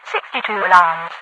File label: alarms, radio voice; Source Engine; video game; npc; overwatch